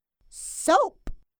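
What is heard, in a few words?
"soap", English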